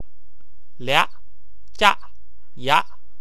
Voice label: "iaq", wu chinese; 略脚药